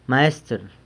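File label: "maestr", master bricklayer, San Lucas Quiaviní Zapotec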